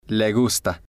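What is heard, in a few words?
"le gusta", Spanish, male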